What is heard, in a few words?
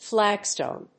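"flagstone", English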